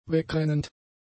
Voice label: "wegrennend", German; adjectives